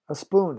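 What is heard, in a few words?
"a spoon", English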